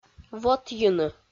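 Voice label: "вотйыны", Komi, female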